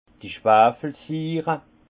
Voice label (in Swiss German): "schwafelsiire", alsace; vin; acide sulfurique liquide